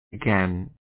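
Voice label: "again", British English, male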